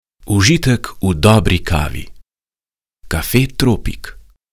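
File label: slovenian male voice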